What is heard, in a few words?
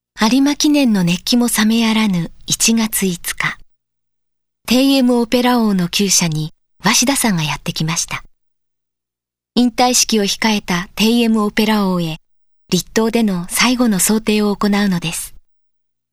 Japanese documentaries